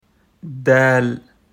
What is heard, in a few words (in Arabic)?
دال; quiz